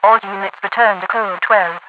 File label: all units return to code 12, radio voice; hl2; npc; overwatch